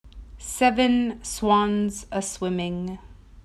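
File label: "Seven swans", English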